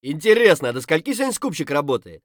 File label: scav mutter, voice; Escape from Tarkov; scav3